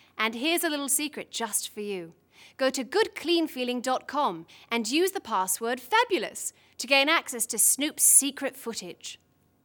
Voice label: phone call; Snoop Dog